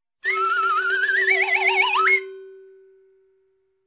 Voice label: CARTOON ACCENT